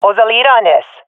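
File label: request leader, swat female; Counter Strike Model Pack; CS:GO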